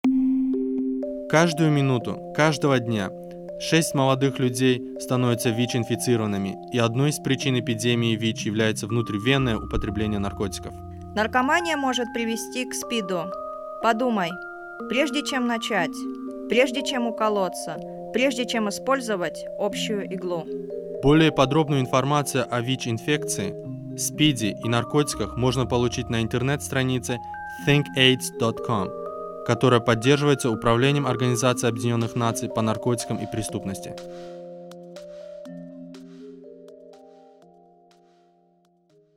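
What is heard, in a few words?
spot russian music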